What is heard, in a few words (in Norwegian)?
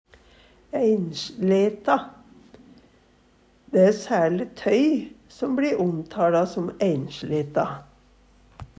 einsleta, Numedalsmål; Adjektiv